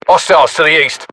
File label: uk threat infantry east, battlechatter; localized english